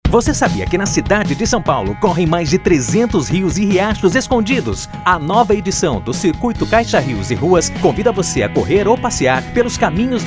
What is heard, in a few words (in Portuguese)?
spot corrida; CORRIDA RIOS E RUAS